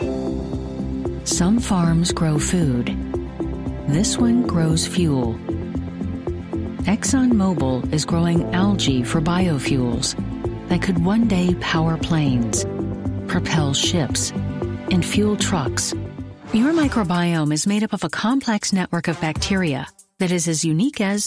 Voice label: voiceover narration women; new york

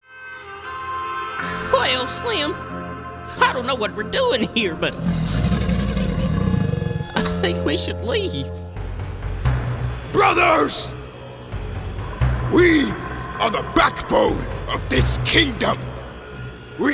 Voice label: voiceover commercial men; new york

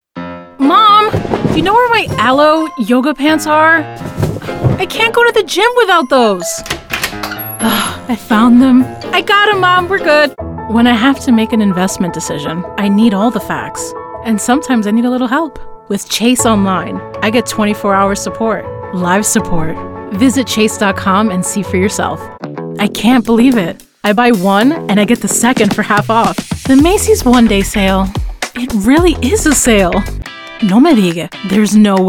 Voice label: Voiceover, Animation; New York

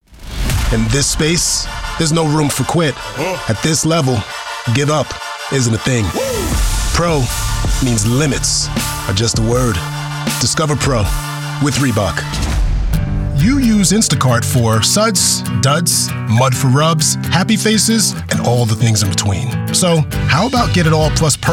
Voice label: Voiceover, Commercial, Men; New York; Stewart Talent; Commercial Demo

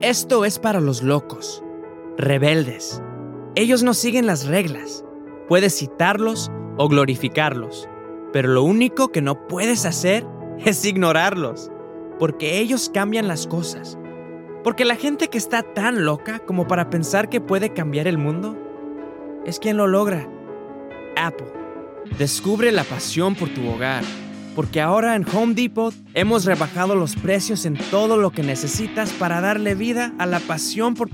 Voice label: Voiceover Animation; New York

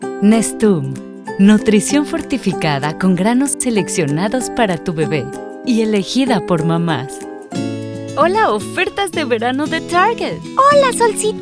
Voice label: Voiceover, Political, Women; chicago

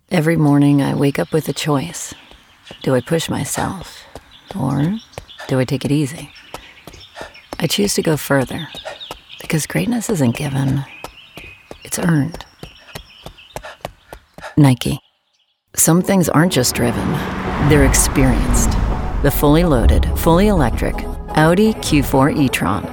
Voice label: voiceover, narration, women; new york

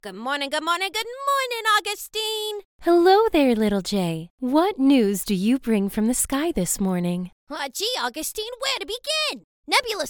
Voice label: Voiceover Animation; New York; Stewart Talent; Character Demo

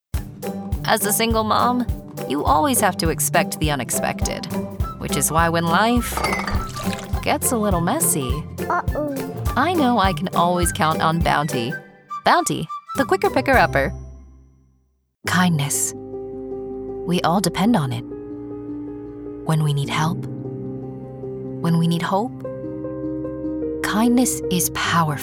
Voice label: voiceover commercial women; Chicago

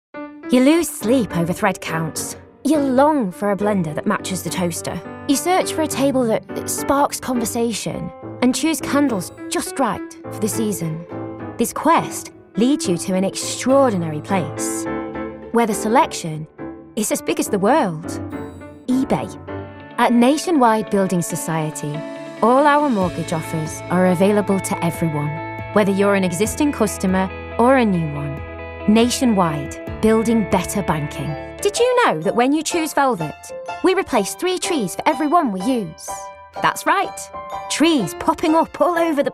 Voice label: Voiceover, Animation; new york

